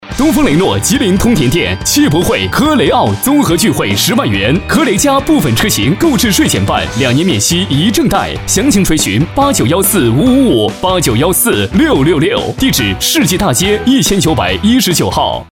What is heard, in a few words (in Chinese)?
汽车 男 年轻磁性; 东风雷诺; 15S